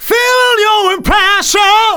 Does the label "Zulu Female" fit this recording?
No